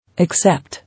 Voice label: "Accept", English